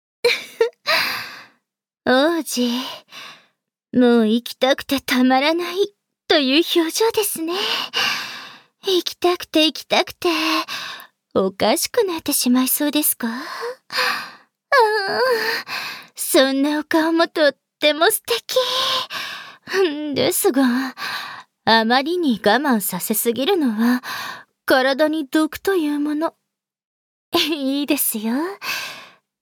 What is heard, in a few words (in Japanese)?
トドメボイス